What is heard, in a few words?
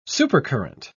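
"supercurrent", English, uk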